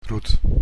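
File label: "roet", Dutch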